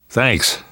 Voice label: thanks, namvet, survivor voice; Left 4 Dead 2; left4dead2 dlc2